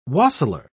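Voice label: "wassailer", British English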